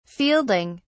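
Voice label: "fielding", English, British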